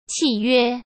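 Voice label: "契约", Mandarin Chinese; chinese flashcards; hsk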